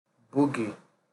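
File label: "boogie" Nigerian Pidgin, male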